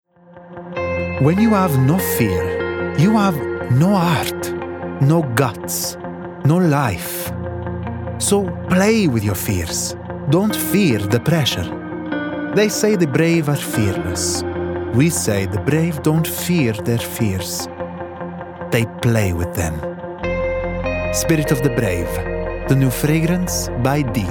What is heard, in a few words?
Cool, Young, Fun, Sporty, Italian; Diesel